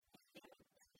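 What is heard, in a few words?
Komi sentences, female; ме велӧдчысь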